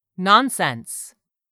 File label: "nonsense", English; 3000 words